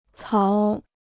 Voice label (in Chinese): "槽", Chinese